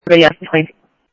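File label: Simulcast Chandler Patrol B, scanner; Chandler-Ptrl B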